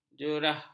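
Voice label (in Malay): "jerah", Malay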